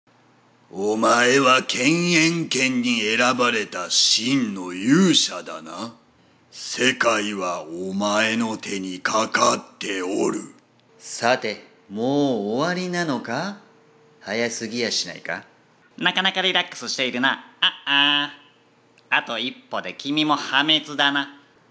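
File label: Japanese, game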